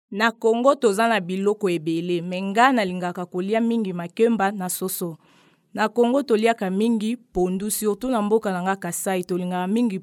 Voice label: Lingala